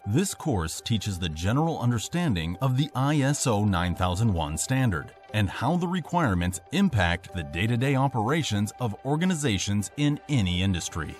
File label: foreigner